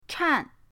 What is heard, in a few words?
"chan4", pinyin